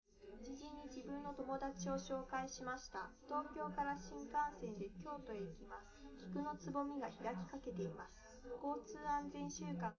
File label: test female live rec; SiSEC11; underdetermined